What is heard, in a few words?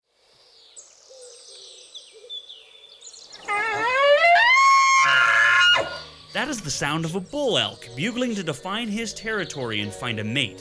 Commercial